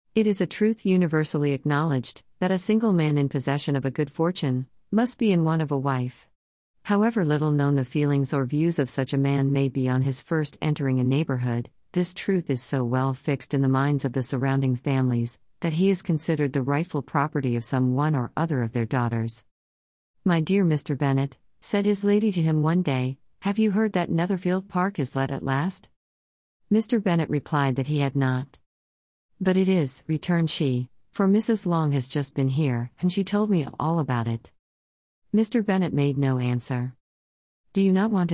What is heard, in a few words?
female